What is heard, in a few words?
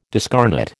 "discarnate", American